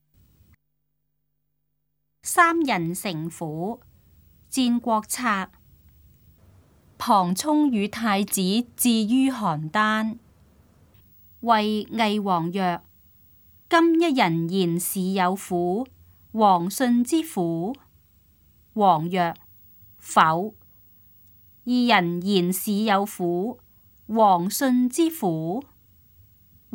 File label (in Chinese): cantonese; 三人成虎; 粵語